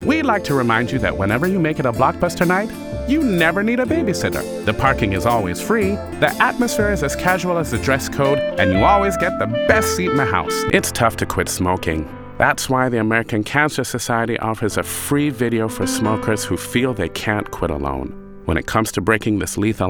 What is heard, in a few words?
Voice Over